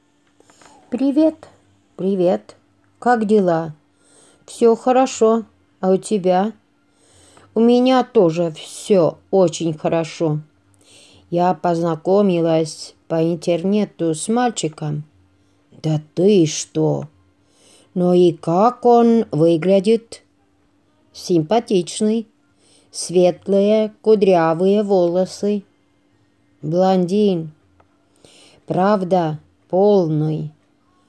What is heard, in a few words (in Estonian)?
Välimus dialoog